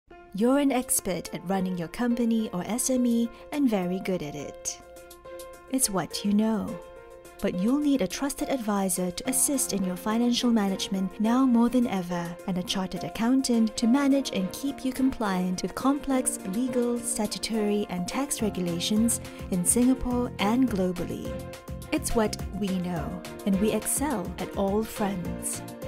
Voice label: Company Video VO, Singapore Biz Explainer Vo - Professional, Sincere, Corporate Guide, female; Explainer Videos